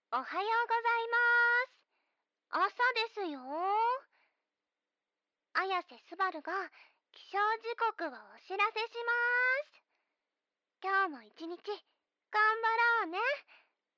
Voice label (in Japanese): おはよう、お姉ちゃん（電話風）; すばるのほし ～子犬系JK妹キャラにたっぷり愛されちゃう百合音声～